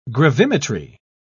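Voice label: "gravimetry", British English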